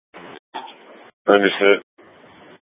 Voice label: Light Rail Ops, scanner; Light Rail Ops 1